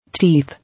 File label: "Teeth", English; pl. of tooth.